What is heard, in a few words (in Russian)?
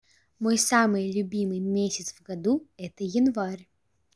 Январь, русский